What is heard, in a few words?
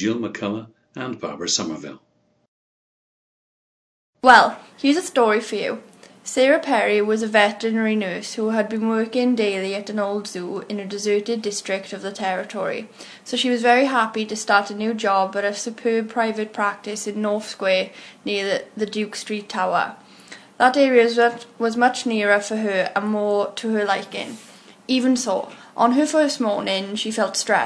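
wales, International Dialects of English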